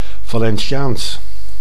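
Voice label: "Valenciaans", Dutch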